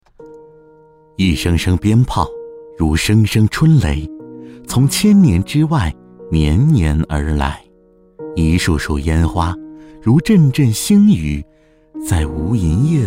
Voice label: 男 纪录片感觉

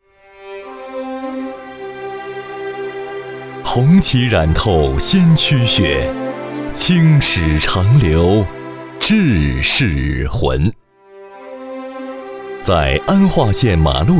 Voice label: 男 中文 历史讲述; 重温红色记忆; 湖南坡

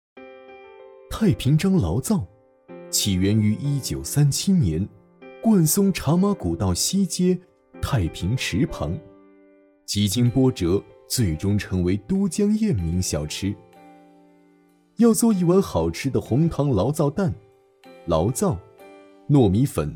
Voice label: 男 专题; 太平张醪糟

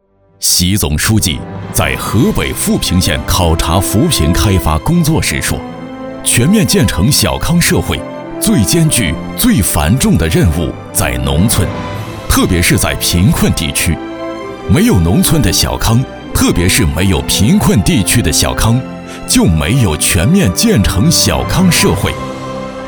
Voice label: 男 宣传片 脱贫

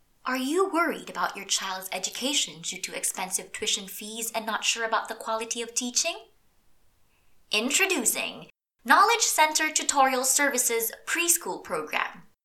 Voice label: 外籍英女 教育专题

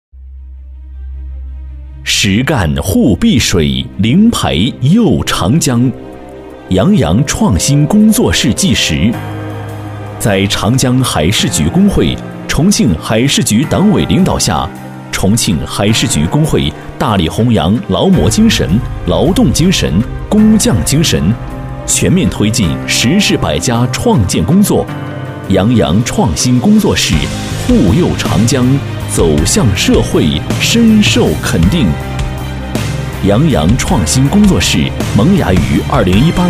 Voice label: 男 专题纪录片; 杨洋创新工作室

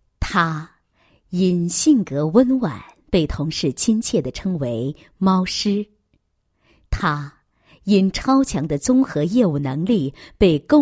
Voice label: 女; 集团年度人物

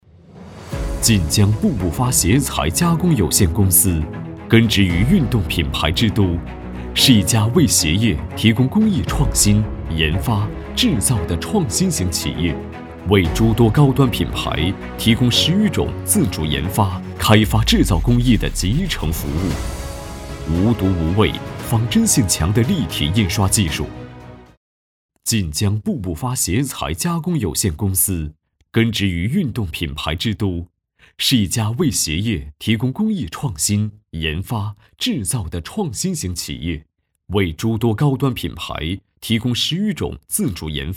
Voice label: 男 宣传片; 步步发鞋材

